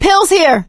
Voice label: spot pills, survivor voice; source engine; left 4 dead 2; producer